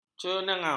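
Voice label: "cenangau", Malay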